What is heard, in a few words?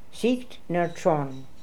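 "Sikt ner chorn", pronunciations; Fourth Way; Beelzebub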